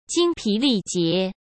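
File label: "精疲力竭", Mandarin Chinese; chinese-flashcards; hsk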